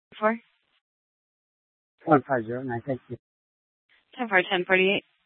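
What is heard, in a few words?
Police Department Dispatch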